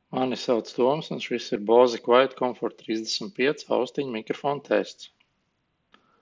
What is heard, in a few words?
bose qc35 mic